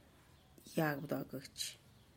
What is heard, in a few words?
"yagbduagutx", type of squash tree, tlacochahuaya